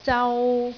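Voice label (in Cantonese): "zau3", Cantonese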